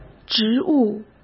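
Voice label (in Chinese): "职务", Mandarin Chinese; hsk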